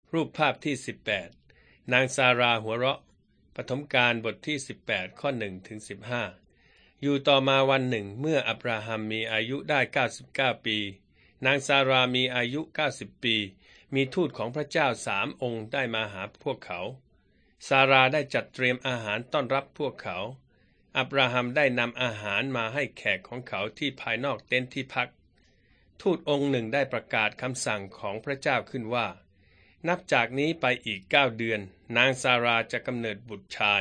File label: beginning with god thai central